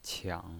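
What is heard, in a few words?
"qiang" third tone, pinyin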